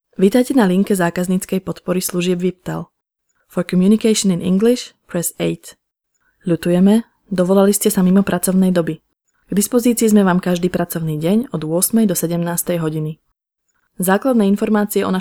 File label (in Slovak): hlas zena; VIPTel